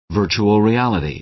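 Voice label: "virtual reality", American English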